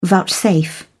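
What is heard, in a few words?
"vouchsafe", British English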